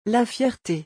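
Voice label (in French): "la fierté", French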